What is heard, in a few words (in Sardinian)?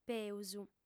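"peus", female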